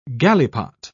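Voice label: "galipot", British English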